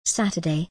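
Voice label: "Saturday", British English; days-of-the-week-in-english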